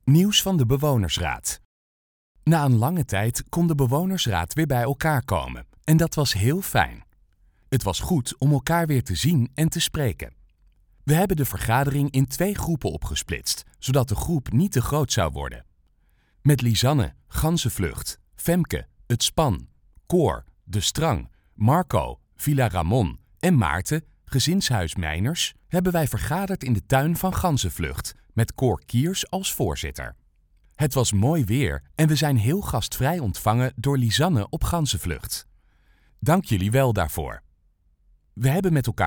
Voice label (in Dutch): Voiceover